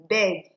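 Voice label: "beg", Nigerian Pidgin, female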